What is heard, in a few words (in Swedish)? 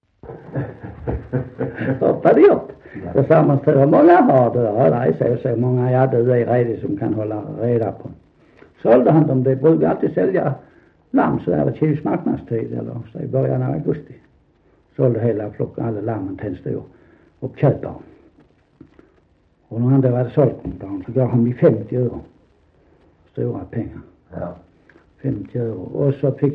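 Sankt Olof Skåne, dialekter; Folkminnen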